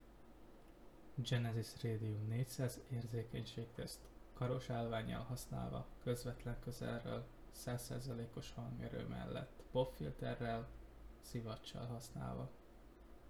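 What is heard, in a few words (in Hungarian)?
Radium 400 100, 100% hangerő (érzékenység), mikrofon, zajosabb környezet; Genesis Radium 400; szivacs nélkül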